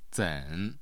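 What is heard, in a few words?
"zen3", Mandarin Chinese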